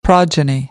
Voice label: "progeny", American English; ɑː - ɒ